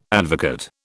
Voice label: "advocate", British